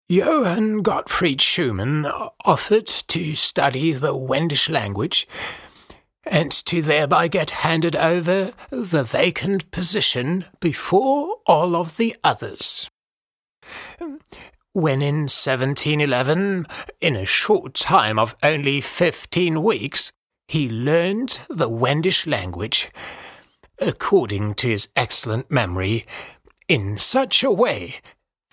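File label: English UK, Schauspiel Freundlich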